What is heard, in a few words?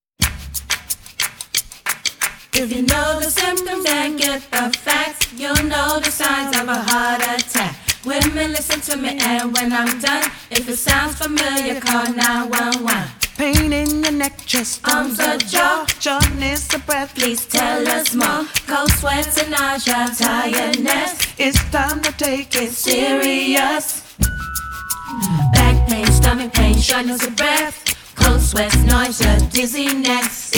radio; hhs 911 make the call